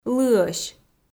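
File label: "лыӧсь" Komi, female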